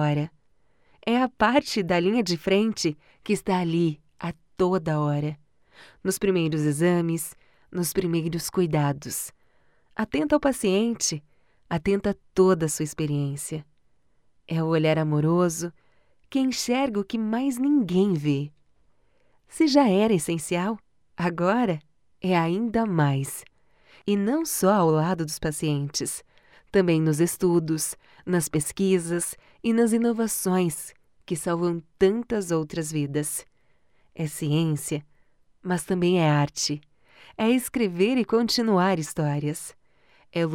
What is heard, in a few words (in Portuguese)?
Institucional, banco de vozes